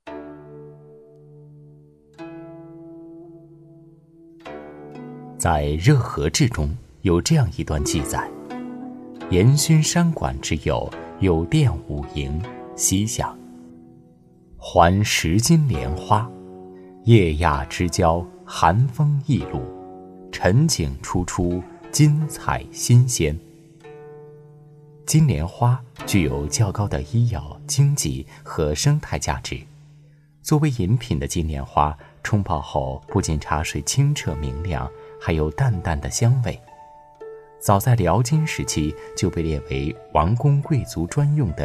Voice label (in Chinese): 男 节目片花 中医 古典风味